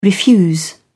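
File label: "refuse", British English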